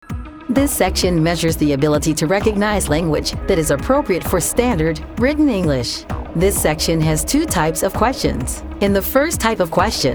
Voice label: elearning w music